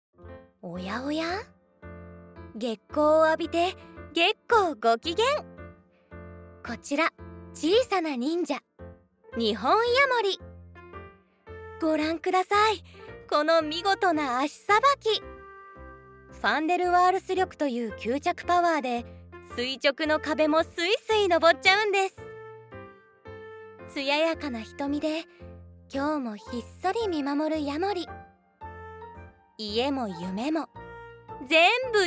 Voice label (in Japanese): NA バラエティ番組 BGon